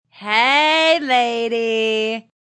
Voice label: Woman Says Hey Lady; Woman talking.

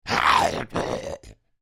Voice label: Creature Troll Speak; Monster Sound Effects; Horror; BigRoomSound

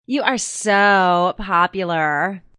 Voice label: Woman Says You're So Popular; Talking Sound Effects

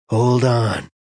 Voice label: Hold On, Male; Talking Sound Effects

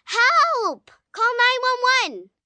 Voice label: Distress Call 911, Distress, 1 girl, 9 yrs; Exclamation; VoiceBox

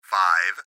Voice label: Gps Voice, Male

